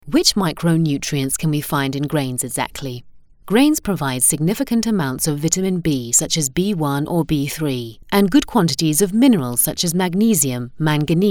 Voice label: narration